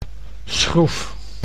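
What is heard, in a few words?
"schroef", Dutch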